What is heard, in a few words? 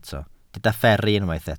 "fferru", Welsh